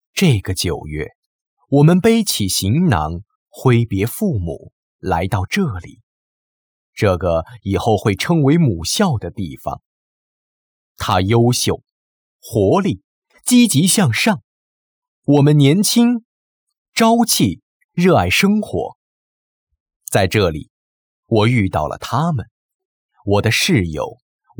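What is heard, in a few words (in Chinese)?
宣传片, 工程学院新生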